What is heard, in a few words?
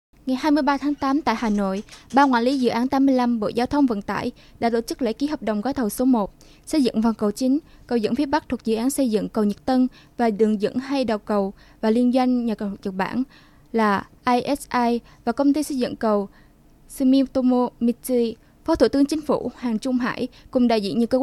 news